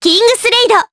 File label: Vox, jp; Cleo; Kingsraid